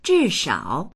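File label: "zhi4shao3", Mandarin Chinese; zhìshǎo; 至少; 少なくとも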